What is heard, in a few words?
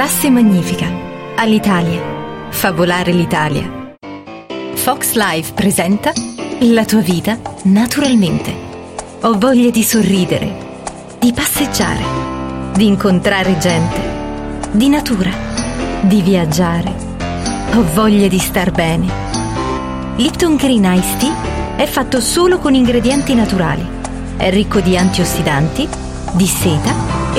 italian commercial, Female; Switzerland; voice actor